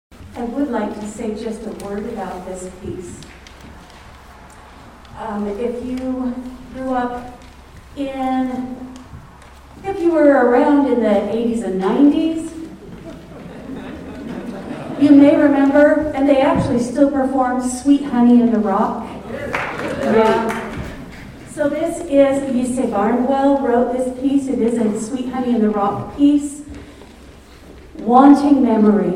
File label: Narration